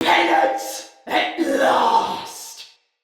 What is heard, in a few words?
Attack, Male; Barks Battle; Ode Tech; Sinecure Banneret